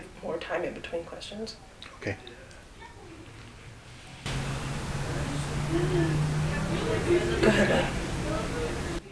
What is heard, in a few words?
Female; evp